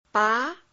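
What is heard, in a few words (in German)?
Phoneme, chinesisch; Silben; Chinesischen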